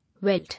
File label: "Weld", English